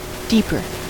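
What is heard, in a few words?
"deeper", US English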